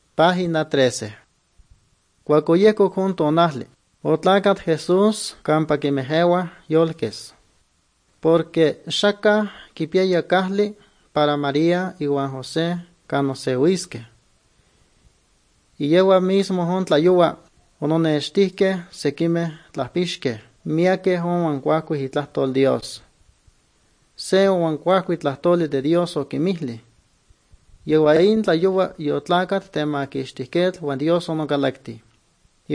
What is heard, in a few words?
good news, nahuatl guerrero chilapa; bible; guerrero nahuatl the bible league nt drama